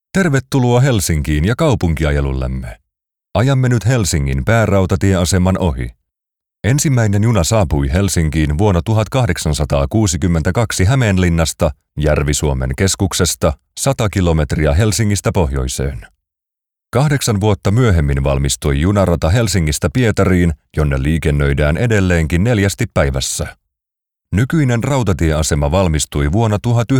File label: Audio Guide Virtual Tours